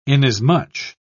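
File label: "inasmuch", British English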